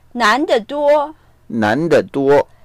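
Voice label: "nan2deduo1", Mandarin Chinese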